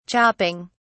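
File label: "chopping", British English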